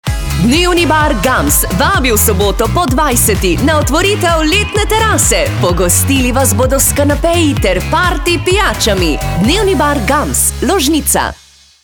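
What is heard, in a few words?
Slovenian, female, commercial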